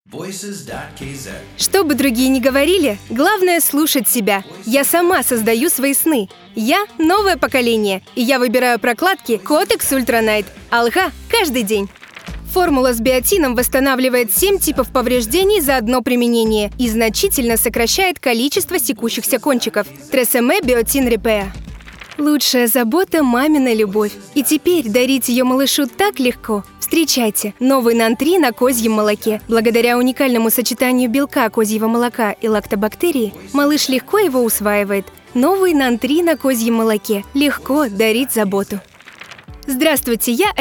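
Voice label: Russian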